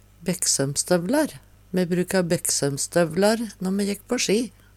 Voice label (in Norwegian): bekksaumsko, Numedalsmål